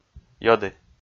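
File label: "ёді", Komi, male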